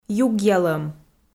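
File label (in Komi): "югъялӧм", Komi, female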